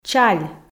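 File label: "чаль", Komi, female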